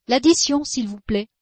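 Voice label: "addition", French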